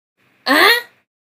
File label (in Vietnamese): tiếng ha questioning ah; capcut